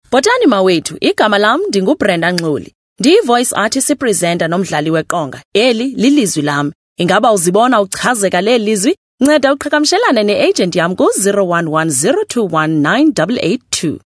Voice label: Xhosa Conversational

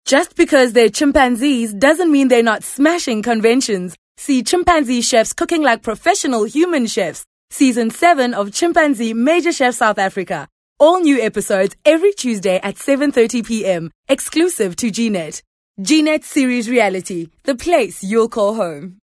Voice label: English Promo

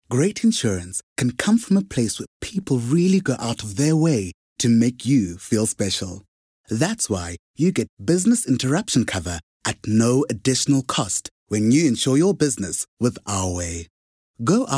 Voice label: English Corporate; OSM Talent

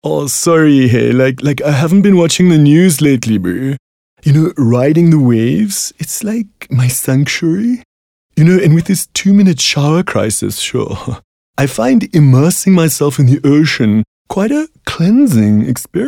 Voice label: English Character Surfer

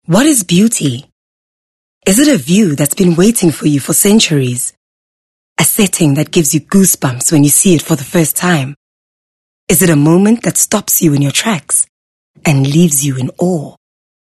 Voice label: English SoftSell

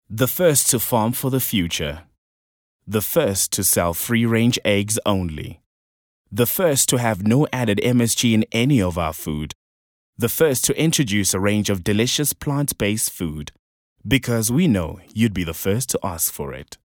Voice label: English softsell

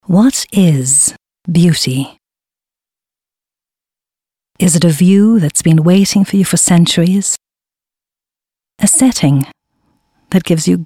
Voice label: English Conversational Dramatic

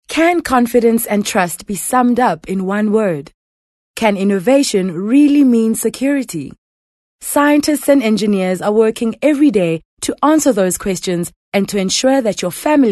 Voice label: English conversational

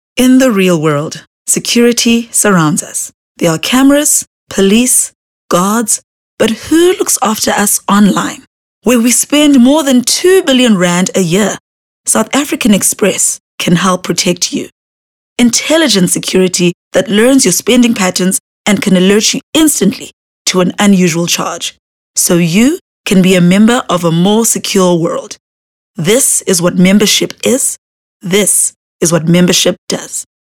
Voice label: English Corporate

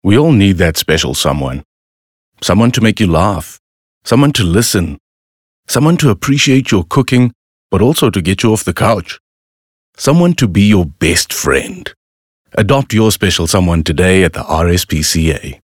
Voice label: English Softsell

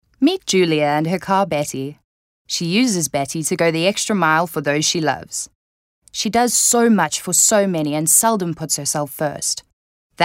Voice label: English Conversational

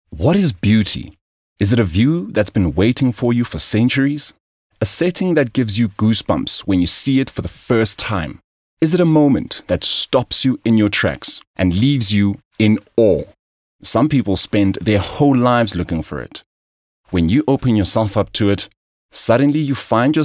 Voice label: English Soft Sell

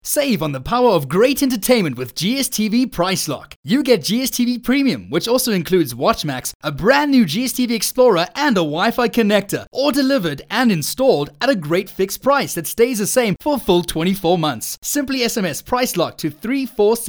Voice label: English Hard Sell; OSM Talent

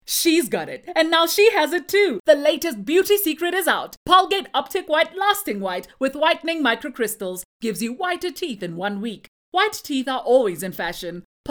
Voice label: English Hard Sell; OSM Talent

